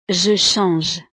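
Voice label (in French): "je change", French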